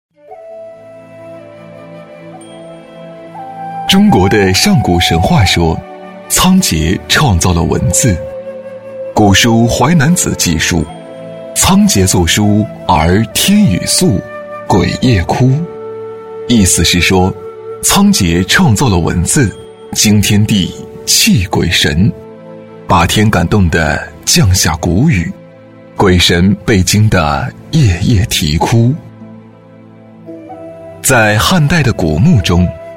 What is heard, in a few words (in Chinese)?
男 纪录片 娓娓讲解; 仓颉造字; 年轻活力 质感磁性